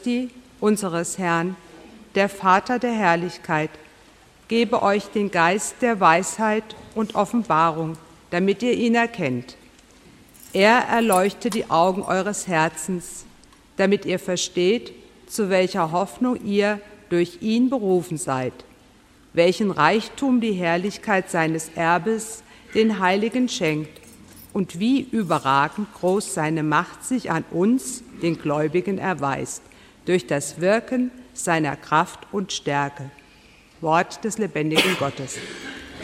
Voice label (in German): lesung